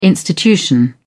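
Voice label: "institution", British English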